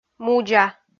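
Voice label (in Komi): "мудза", Komi, female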